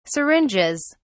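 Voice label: "syringes", British English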